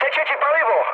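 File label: tank damaged, Czech, mood low; speech; War Thunder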